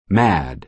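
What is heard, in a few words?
"mad", American English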